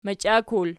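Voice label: "machiakul" nominative, Archi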